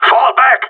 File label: radio bot fall back; Counter Strike Model Pack; CS:GO; gsg9